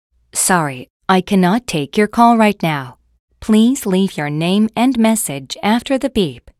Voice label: guidance eng; Samsung Galaxy S8